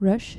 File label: "rush", dutch